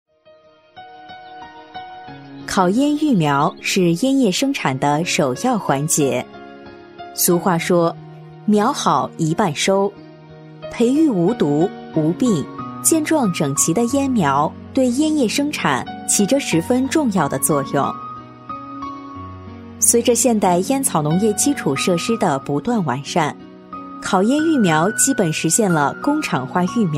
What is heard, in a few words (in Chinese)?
课件 烤烟育苗, 国语女声配音